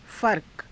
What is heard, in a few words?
"farka", Hindi